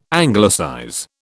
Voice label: "Anglicize", British